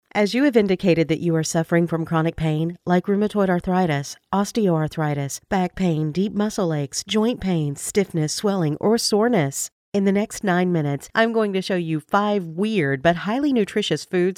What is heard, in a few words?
VSL Infomercial